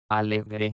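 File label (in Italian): "alegre", spagnola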